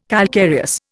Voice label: "calcareous", Indian

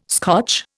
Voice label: "Scotch", Indian

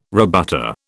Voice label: "rebutter", British

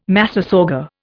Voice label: "massasauga", Indian

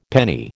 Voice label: "penny", American

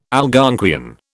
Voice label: "Algonquian", British

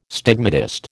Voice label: "stigmatist", American

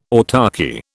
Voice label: "autarchy", British

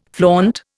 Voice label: "flaunt", Indian

